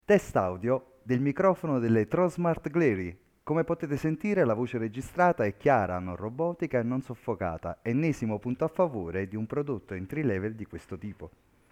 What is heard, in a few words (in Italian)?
test audio microfono; tronsmart glary